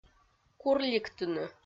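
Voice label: "курликтыны", Komi, female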